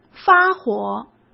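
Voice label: "发火" Mandarin Chinese; hsk; chinese-flashcards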